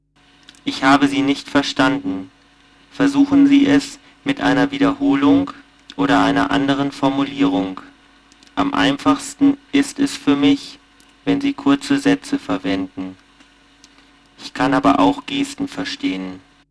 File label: Sine slow; SmartKom; Prototypen Stimme